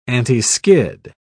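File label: "antiskid", British English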